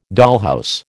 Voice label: "dollhouse", American

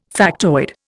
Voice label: "factoid", Indian

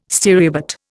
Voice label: "stereobate", Indian

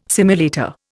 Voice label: "simulator", Indian

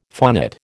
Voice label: "phonate", American

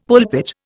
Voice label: "pulpit", Indian; Dictionary

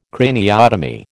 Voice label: "craniotomy", American

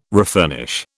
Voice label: "refurnish", British

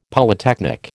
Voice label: "Polytechnic", American

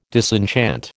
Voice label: "disenchant", American

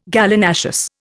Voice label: "Gallinaceous", Indian

